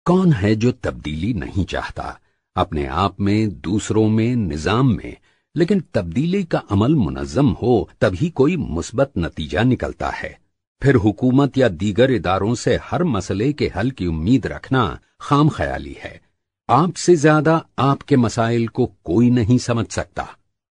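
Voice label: UR M narration, Urdu, male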